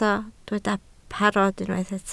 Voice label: "parod" Welsh

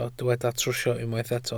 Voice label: "trwsio", Welsh

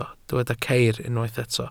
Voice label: "ceir", Welsh

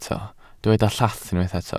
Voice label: "llath", Welsh

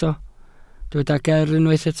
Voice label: "ger" Welsh

